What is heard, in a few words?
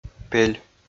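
"пель", Komi, male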